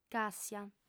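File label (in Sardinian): "càssia", female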